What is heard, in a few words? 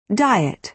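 "diet", American English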